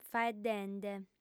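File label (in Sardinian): "faeddende", female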